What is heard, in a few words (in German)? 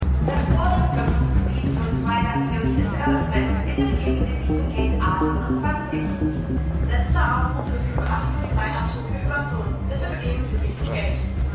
Flughafendurchsage, Stimmproben; Tetris